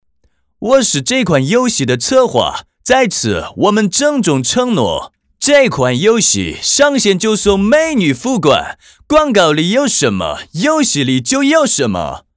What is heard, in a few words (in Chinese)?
游戏信息流 外国人口音